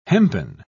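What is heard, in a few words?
"hempen", British English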